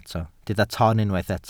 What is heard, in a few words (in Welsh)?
"ton", Welsh